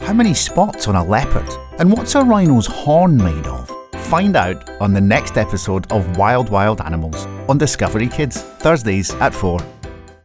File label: Kids TV Mixed